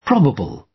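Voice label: "probable", British English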